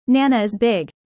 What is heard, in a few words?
user fast; Animal friends